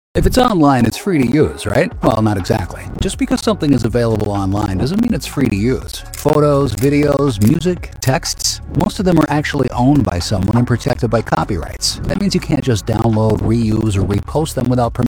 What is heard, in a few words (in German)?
Imagefilme Breites Publikum